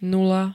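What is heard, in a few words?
"nula", Slovak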